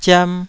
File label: "ziam2", Taiwanese syllable